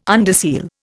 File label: "underseal", Indian